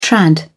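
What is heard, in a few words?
"trad", British English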